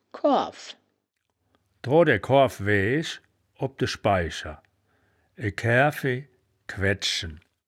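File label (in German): "koarw", wittlicher woerterbuch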